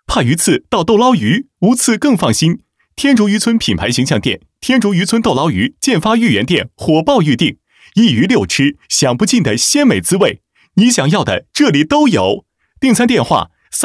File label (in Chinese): 男 广告; 豆捞鱼广告